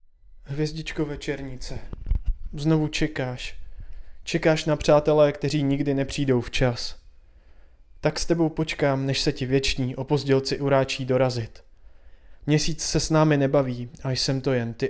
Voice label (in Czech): narace